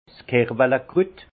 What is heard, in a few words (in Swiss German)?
"kerwelekrut", Alsace; vin; cerfeuil